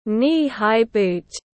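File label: "knee high boot", English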